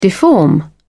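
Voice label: "deform", British English, dictionary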